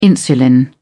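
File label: "insulin", British English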